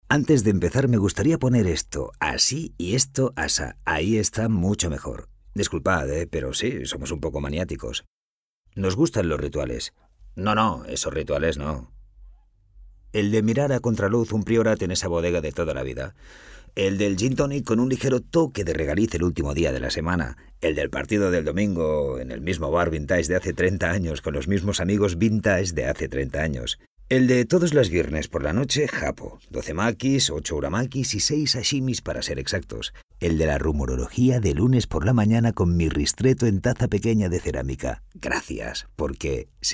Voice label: male spanish coffee comercial